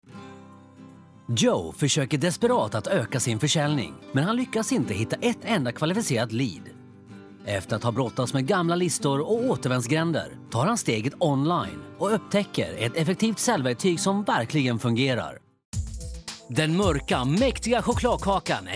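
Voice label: Swedish Commercial; GoLocalise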